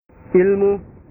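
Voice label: "ilmu", Indonesian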